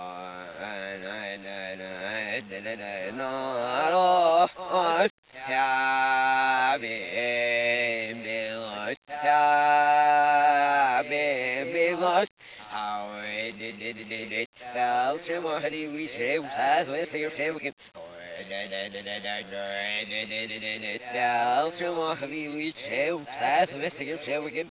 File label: bleh echo backwards